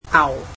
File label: "cow", cantonese